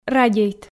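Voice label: "радейт" Komi, female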